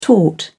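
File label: "taut", British English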